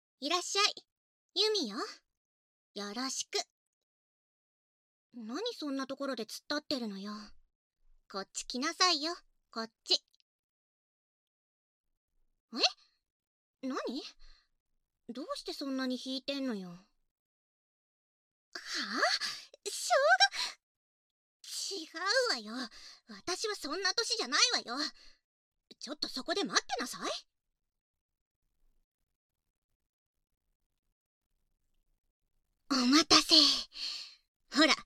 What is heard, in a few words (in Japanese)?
自己紹介 バイノーラルマイク収録; バイノーラル編集